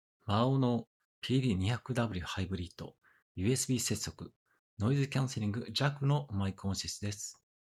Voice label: maono pd200w hybrid usb microphone sound quality

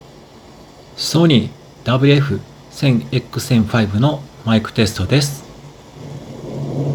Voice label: sony wf 1000xm5 mic sound

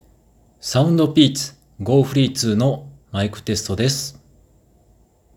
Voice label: mic sound; SOUNDPEATS GoFree2

